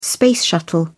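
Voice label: "space shuttle", English, British English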